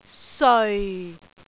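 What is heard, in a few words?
"seoi4", Cantonese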